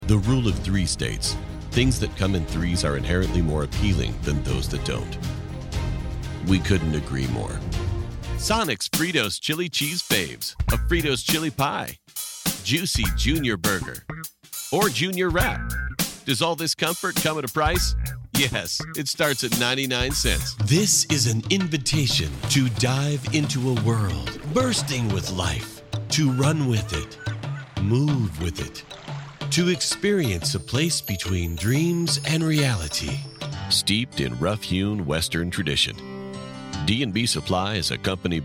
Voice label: Commercial Demo

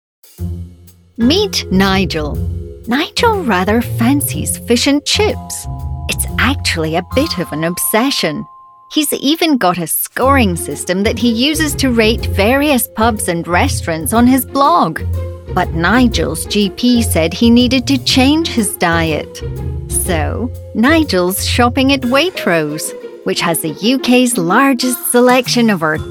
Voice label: Explainer

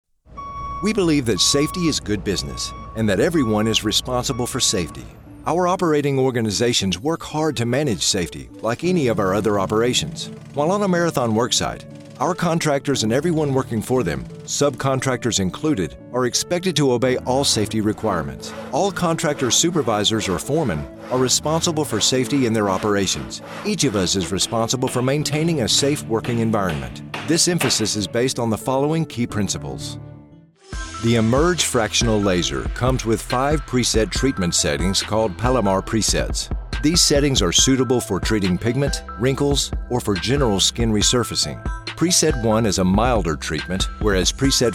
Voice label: E-Learning

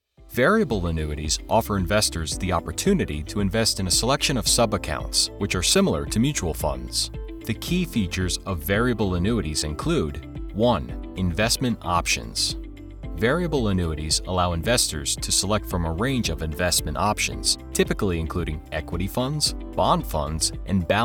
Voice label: Personal Finance E-Learning

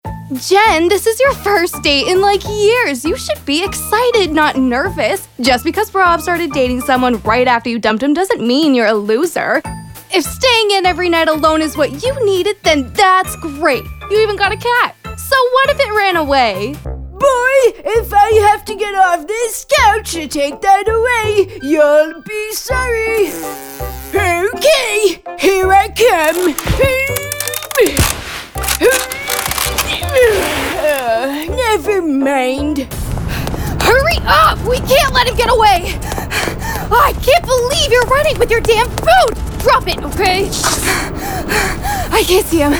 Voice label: character

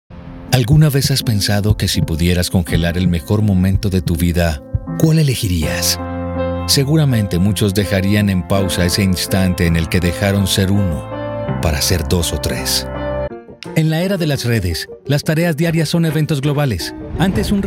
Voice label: CORPORATE

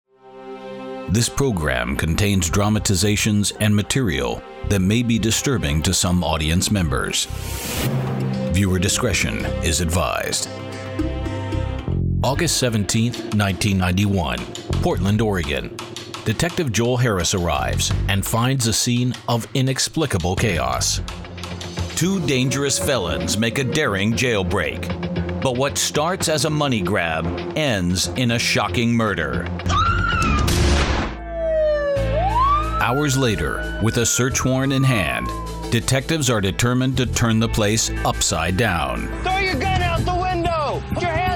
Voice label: Crime Show VO

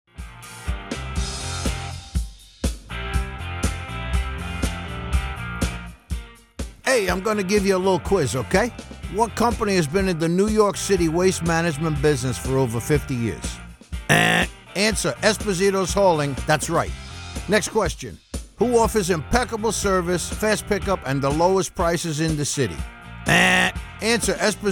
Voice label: Commercial; Espositos

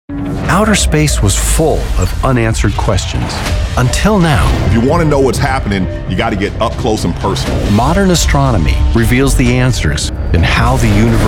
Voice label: Promos

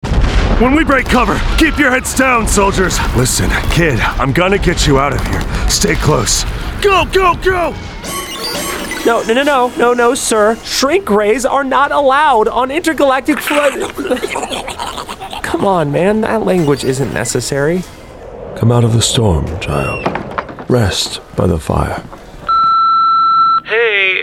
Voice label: Animation

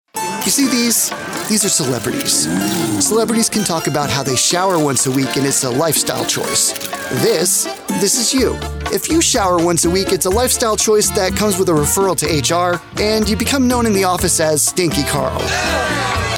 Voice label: Commercial

